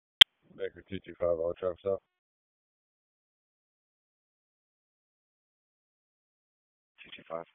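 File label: Police Northeast District Dispatch; Raleigh Police Northeast District Disp; wake p25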